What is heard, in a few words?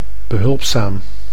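"behulpzaam", Dutch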